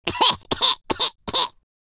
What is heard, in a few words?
idle, Scotsman; speech